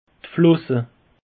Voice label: "flosse", alsace; peche

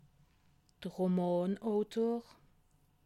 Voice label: "romanautor", alsace

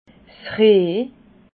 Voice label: "reh", alsace

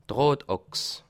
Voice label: "achs", alsace

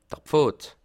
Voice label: "pfad", alsace

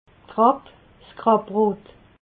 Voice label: "krapp", alsace

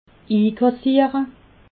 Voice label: "ikassiere", alsace

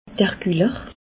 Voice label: "guller", Alsace

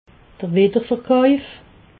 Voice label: "widderverkauf", alsace

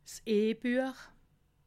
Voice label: "ebuech", alsace

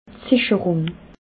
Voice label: "sicherung", alsace

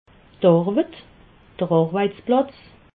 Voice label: "arwet", alsace

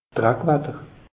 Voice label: "drack watter", alsace; meteo

